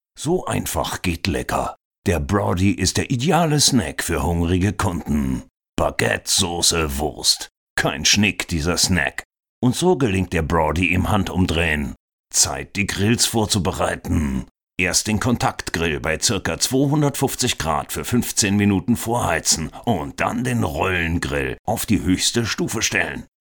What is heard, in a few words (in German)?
Werbung rauh; Broady